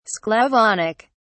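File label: "sclavonic", British English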